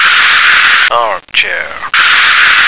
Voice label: "armchair", American